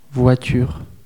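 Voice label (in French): "voiture", French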